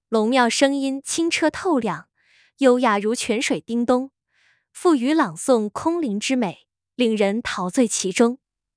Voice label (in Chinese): 抑扬顿挫女; 中、英; longmiao v2